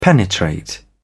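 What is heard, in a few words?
"penetrate", English, British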